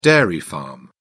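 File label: "dairy farm", British English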